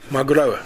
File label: "magurau", minamiyamagata